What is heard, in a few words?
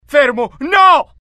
fear, IT; mohaa; dialogue